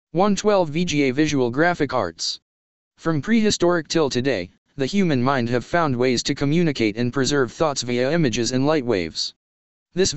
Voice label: text 2 voice